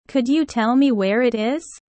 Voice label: low; studying abroad